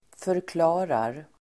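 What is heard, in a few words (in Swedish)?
"förklarar", swedish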